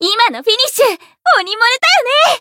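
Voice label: Voice win Japanese; millecha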